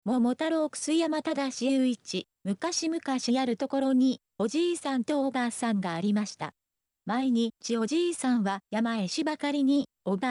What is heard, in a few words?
Vocalizer voice sample